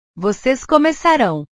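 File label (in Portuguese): "vocês começarão", Portuguese; portugues/verbos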